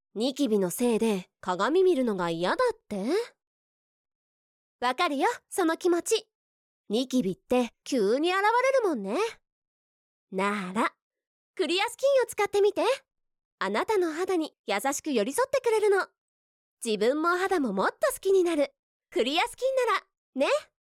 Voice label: female; 20代女性